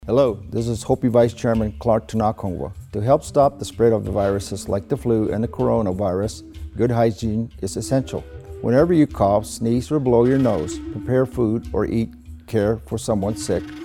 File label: PSA radio